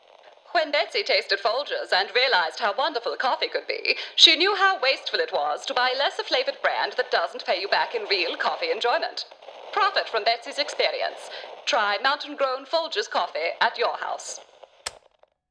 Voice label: radio ad fx static; Folger s